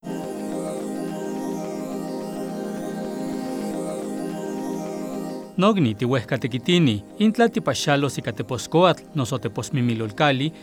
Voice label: NAHUATL